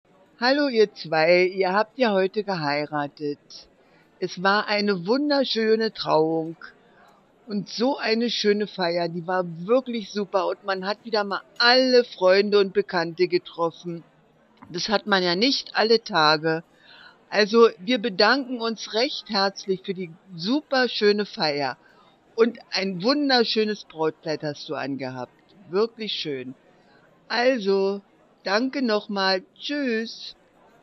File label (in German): Hoerprobe Oma